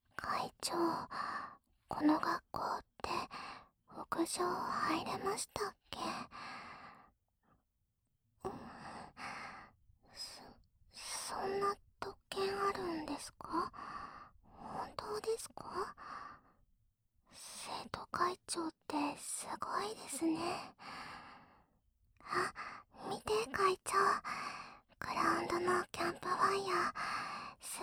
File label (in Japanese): 屋上で告白 両想い好き好きベろちゅーセックス